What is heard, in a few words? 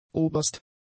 "oberst", German; adjectives